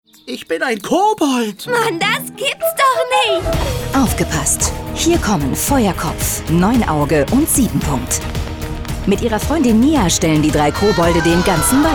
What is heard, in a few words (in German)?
W Fremdtake Dynamisch